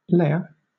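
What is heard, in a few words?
"lair", British English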